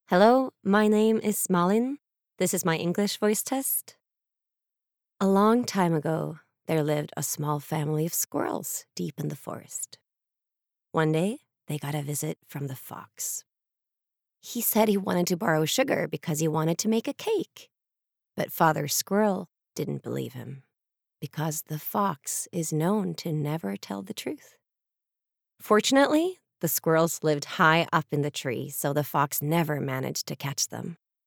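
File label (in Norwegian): Norwegian engelsk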